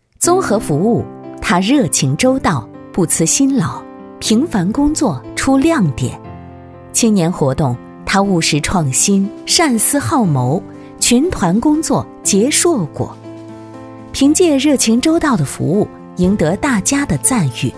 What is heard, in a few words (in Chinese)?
女 党政人物专题